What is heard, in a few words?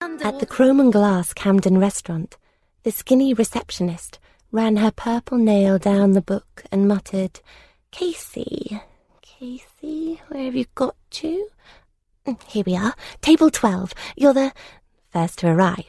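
English UK, Narrative; GoLocalise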